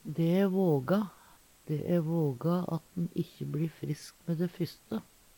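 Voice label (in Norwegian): dæ æ våga, Numedalsmål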